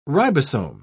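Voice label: "ribosome", British English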